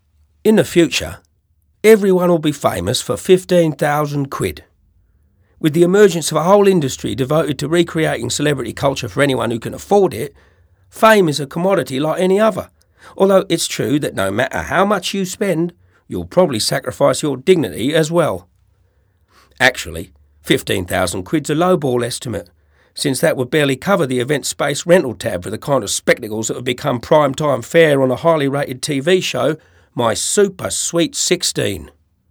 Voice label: cockney; EM Voices